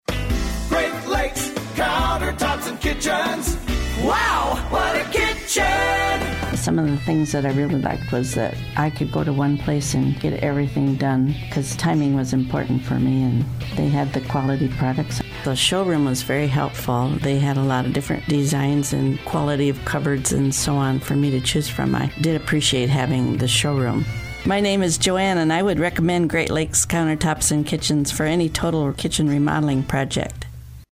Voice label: Testimonial